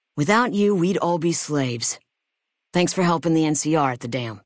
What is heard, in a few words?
female adult hello; Fnv